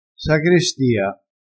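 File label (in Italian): "sagrestia", vocabolario dialettale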